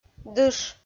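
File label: "дыш", Komi, female; kpv audio dict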